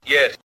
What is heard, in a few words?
"yes", male